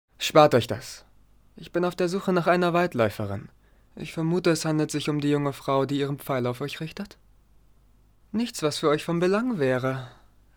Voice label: Hoerspiele; Erwachen; Regwin; Blubb

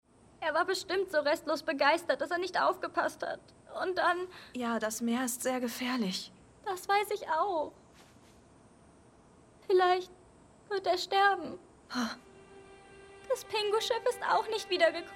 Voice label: Synchron Kind besorgt

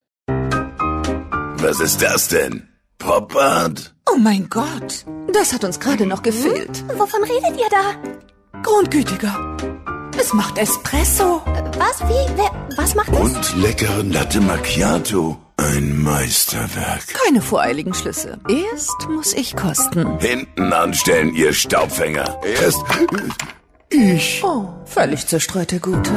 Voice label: TV und Funkspots diverse

